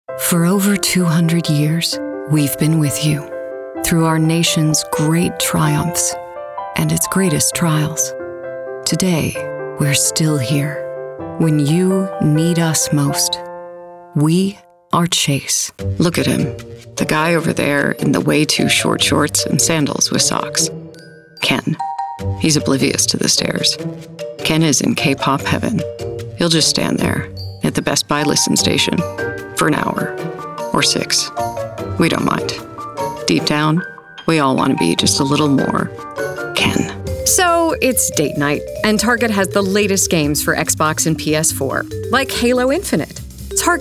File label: Commercial